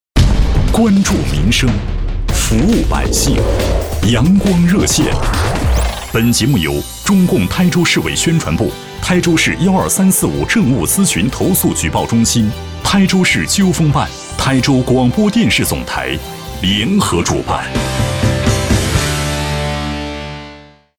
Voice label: 男 正派专题; 阳光热线

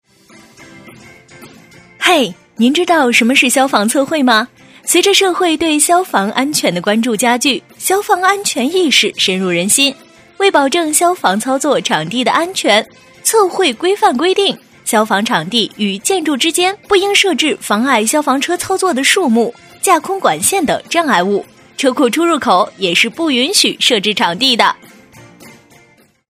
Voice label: 女; 飞碟说; 消防通道

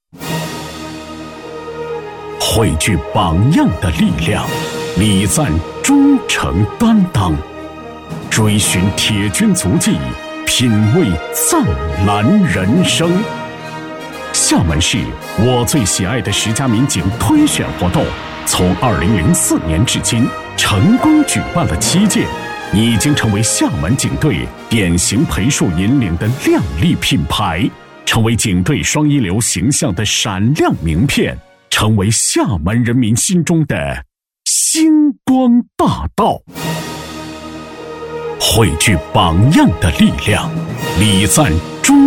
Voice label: 男 颁奖; 我最喜爱的十佳民警

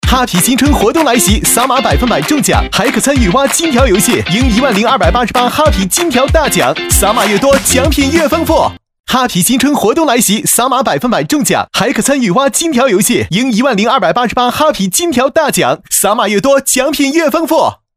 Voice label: 男 广告 啤酒 年轻活泼; 哈尔滨啤酒

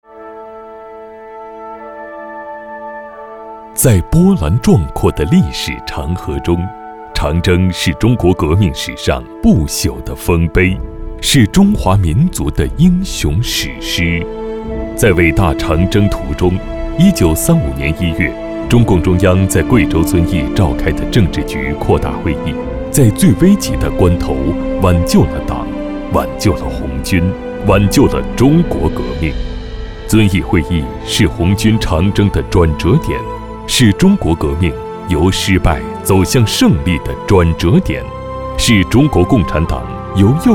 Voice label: 男 大气沧桑 红军长征

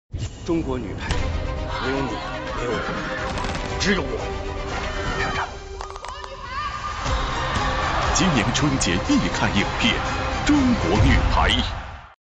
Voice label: 男 广告 电影宣传类; 中国女排

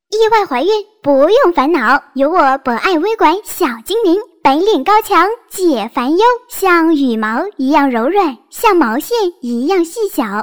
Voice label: 电视广告, 女30童声; 博爱医院

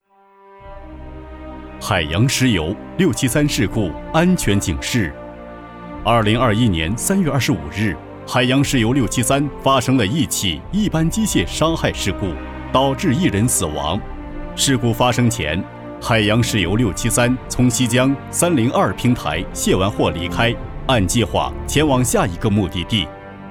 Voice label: 男 解说; 海洋石油673事故

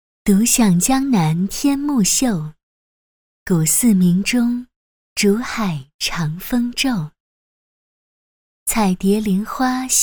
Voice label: 女 朗诵 温柔清新 诗歌; 蝶恋花

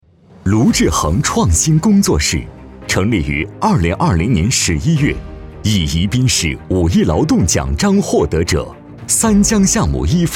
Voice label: 男 人物 颁奖感

